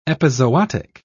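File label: "epizootic", British English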